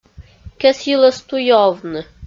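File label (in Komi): "кесйылӧс туйӧ овны", Komi, female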